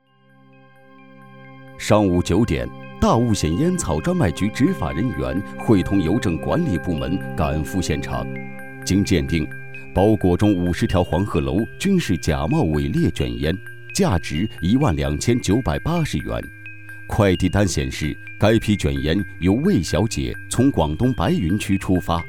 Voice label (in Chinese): 男 专题 紧张严肃; 天网风格